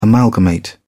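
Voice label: "amalgamate", English, British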